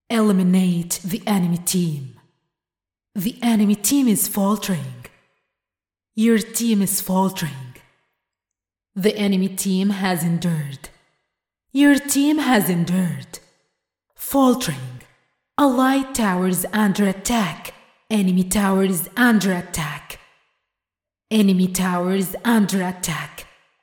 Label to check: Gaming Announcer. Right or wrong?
Right